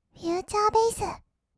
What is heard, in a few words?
future bass, kawaii voice; ASMR; 音楽ジャンル; 1200 kawaii voice!!